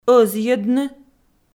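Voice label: "ӧзйӧдны", Komi, female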